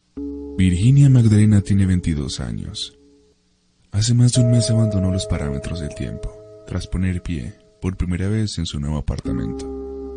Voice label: 西班牙男声

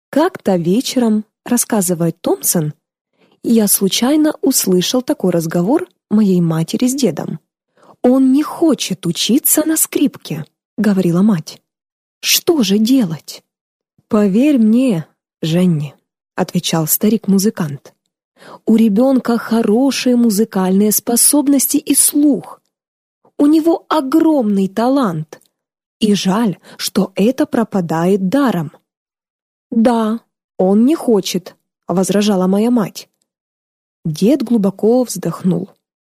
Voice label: 俄语女声 叙事风格

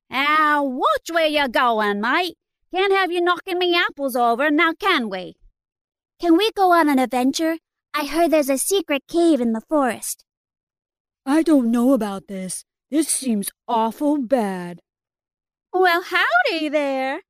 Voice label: 美式女声 角色

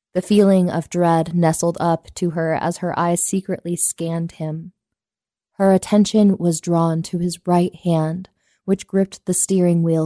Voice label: 美式女声

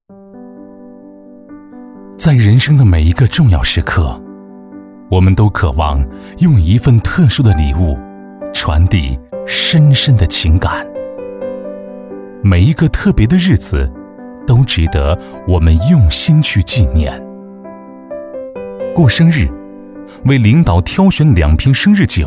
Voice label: 男 广告配音 质感走心; 生日酒礼盒; 男88-广告配音《生日酒礼盒》-质感走心

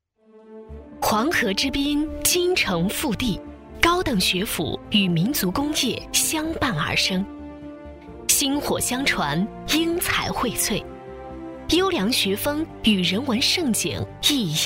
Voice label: 女 学校专题片 磁性大气; 沉稳大气

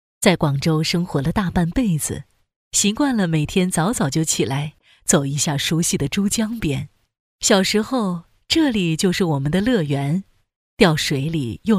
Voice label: 女, 角色, 成熟女

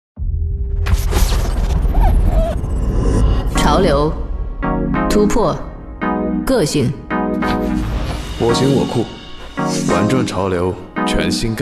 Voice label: 女, 广告配音, 潮酷个性; 潮玩人类在哪里 x 周六福

